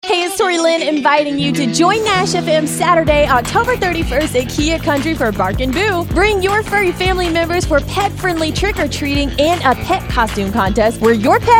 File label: commercial voice